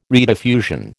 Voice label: "rediffusion", American